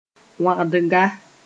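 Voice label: "wãgdga", Mooré; vowel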